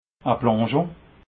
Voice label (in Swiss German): "plongeon", alsace